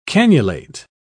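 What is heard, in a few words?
"canulate", British English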